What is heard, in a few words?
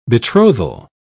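"betrothal", British English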